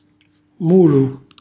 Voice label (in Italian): "mulu", Calabrese; cucina calabrese; Glossario